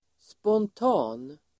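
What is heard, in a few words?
"spontan", Swedish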